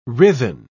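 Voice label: "writhen", English, British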